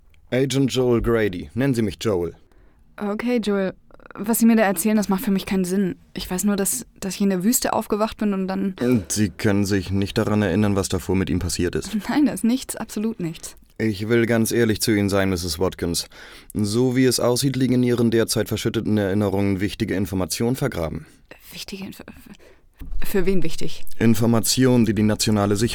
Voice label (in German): Hörspiel; Caine